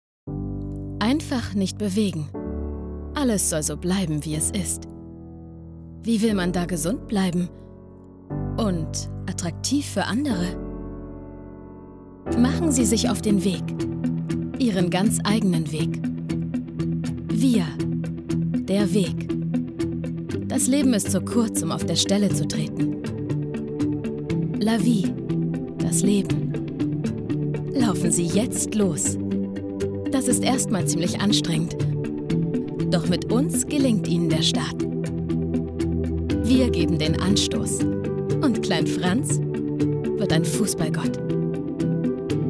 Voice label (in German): imagefilme krankenkasse; viactive